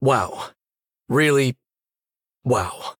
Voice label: Male Human Wow

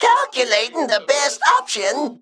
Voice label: Male Mech Emote Thinking

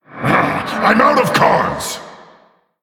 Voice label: Male Orc No Cards; Thrall Deathknight

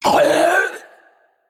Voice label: Female Naga Death

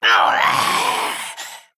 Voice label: Male Felblood Elf Death

